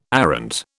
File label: "arrant", British